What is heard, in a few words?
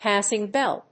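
"passing bell", English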